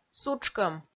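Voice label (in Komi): "сутшкӧм", Komi, female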